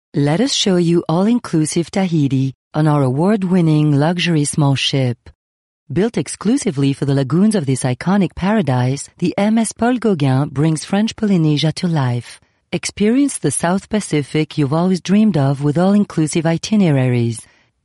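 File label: French, female, English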